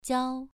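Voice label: "jiao", Mandarin Chinese, second tone, pronunciation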